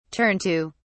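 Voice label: "turn to", British English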